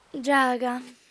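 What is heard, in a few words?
"giaga", Sardinian, female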